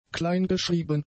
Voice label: "kleingeschrieben", German; adjectives